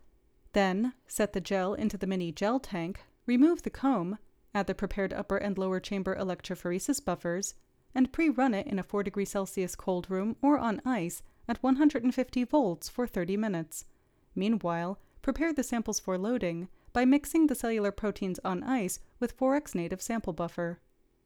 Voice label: Voiceover